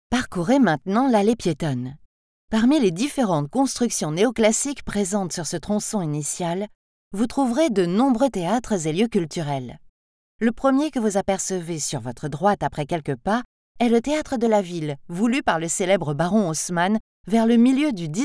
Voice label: Audio Guide Paris Champs Elysees